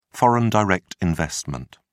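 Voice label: "foreign direct investment", British English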